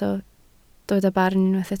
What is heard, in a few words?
"barn", Welsh